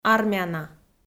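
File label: "армяна", Komi-Zyrian, female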